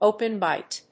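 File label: "Open Bite", English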